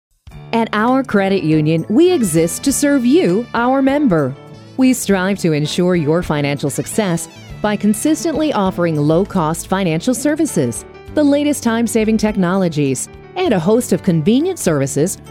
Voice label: lora creditunion, female voices; audio on hold